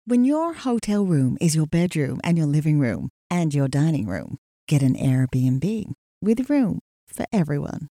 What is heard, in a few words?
Gen American